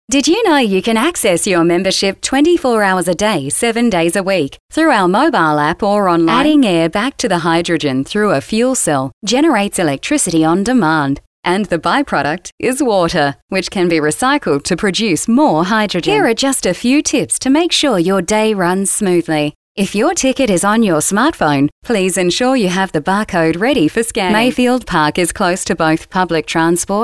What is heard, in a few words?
Corporate